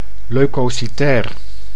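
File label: "leukocytair", Dutch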